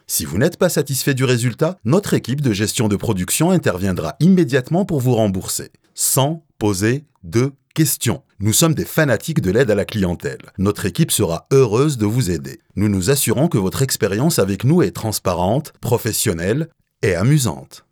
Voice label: French IVR